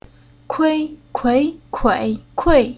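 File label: "Kui", Chinese syllable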